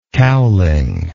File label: "cowling", English